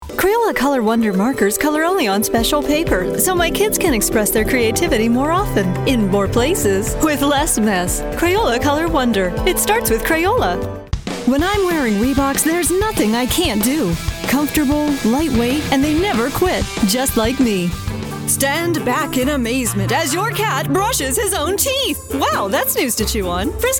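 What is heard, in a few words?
US commercial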